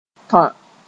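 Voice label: "tõ", Mooré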